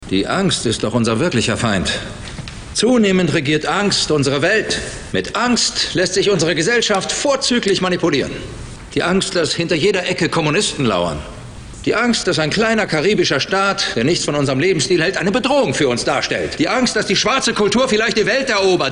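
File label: acting, energetic; Schauspiel; Energisch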